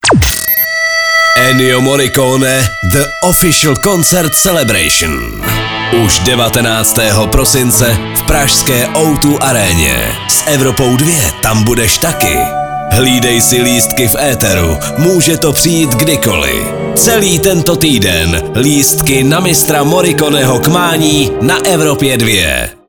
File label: promo; evropa2